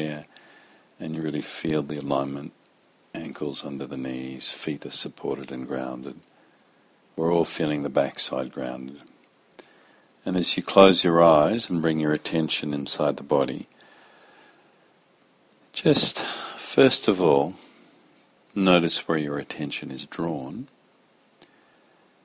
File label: Guided Meditation; Wisdom for Life; Yogic philosophy; Yoga and Vedic Science; Sankhya